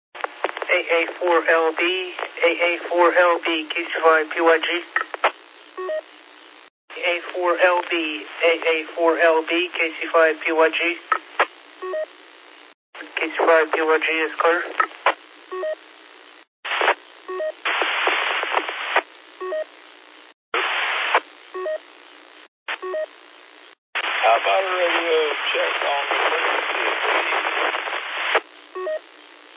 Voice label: qso rec simplex logic